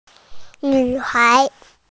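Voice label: "nv hai" Chinese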